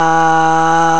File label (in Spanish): vowel a, timbre de voz de niños con síndrome de Smith Magenis